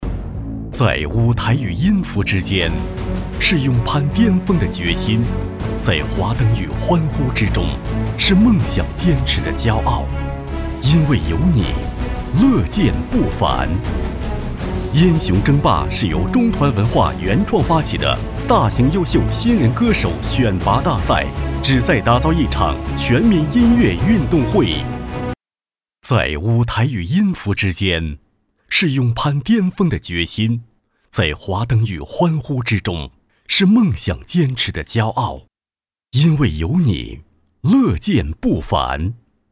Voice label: 男 专题; 音雄争霸

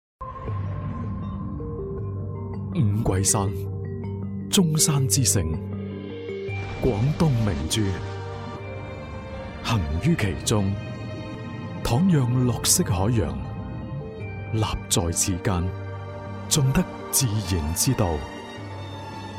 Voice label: 男【广告】; 粤男; 半山御景豪园

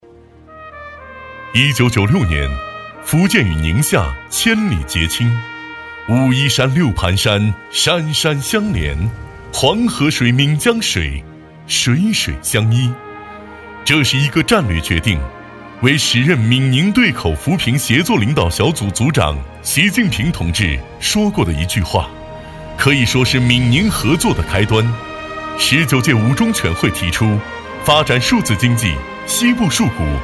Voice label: 男 宣传片; 闽宁协作

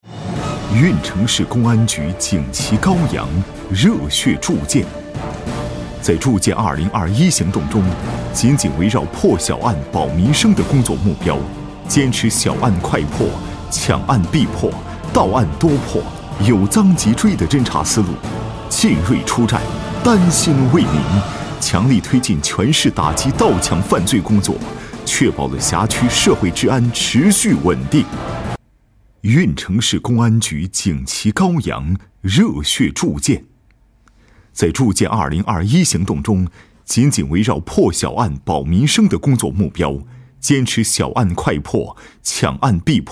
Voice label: 男 宣传; 运城市打击盗抢犯罪

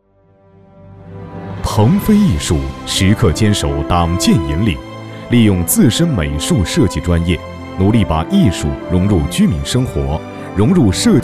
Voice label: 男 宣传片; 腾飞艺术

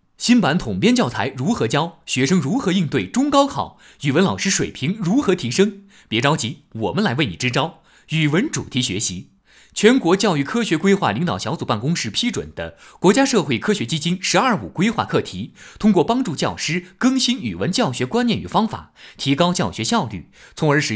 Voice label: 男; 一米阅读

